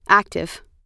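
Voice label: "active", American English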